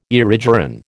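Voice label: "erigeron", American English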